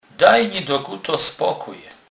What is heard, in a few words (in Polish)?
"dokuta", kurpiow